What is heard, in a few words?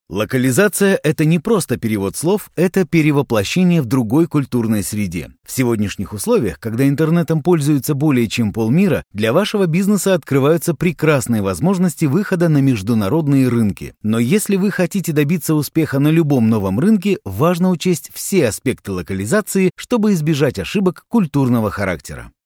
russian male